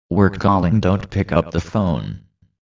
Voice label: work calling, ringtone